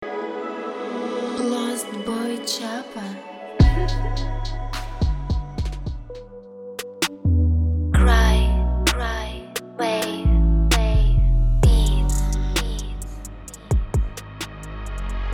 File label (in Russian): Voicetags, Примеры женских войстэгов